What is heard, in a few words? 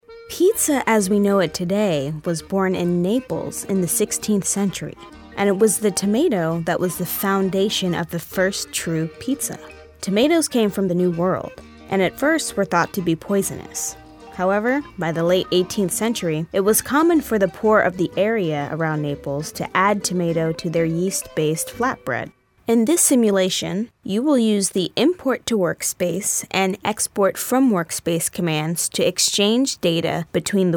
Narration Documentary